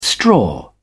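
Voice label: "straw", English, British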